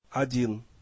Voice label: "1" Russian